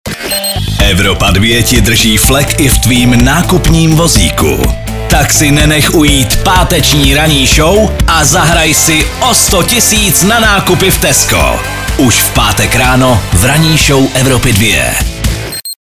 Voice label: liner; evropa2; tesco 100000